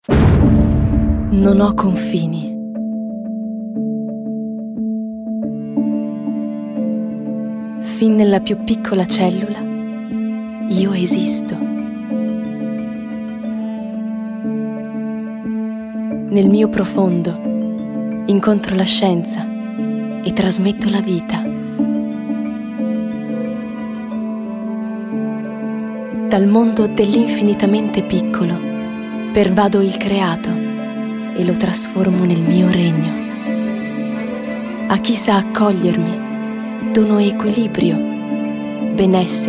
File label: female italian comercial